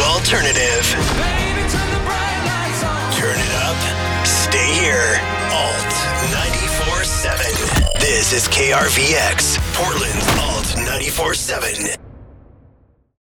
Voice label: Radio Imaging Alt-Modern Rock, conversational, cool Male Voice